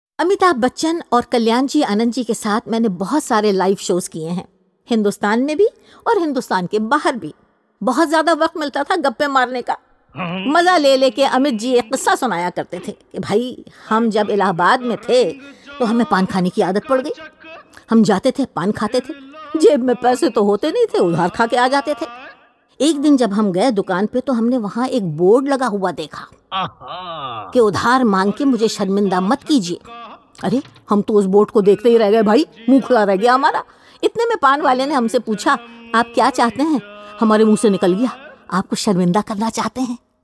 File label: commentary; tabassum